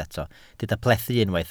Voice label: "plethu", Welsh